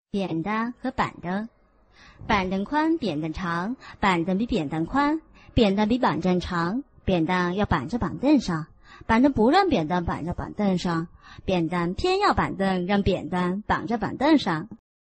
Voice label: chinese tongue twister